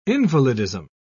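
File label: "invalidism", English, uk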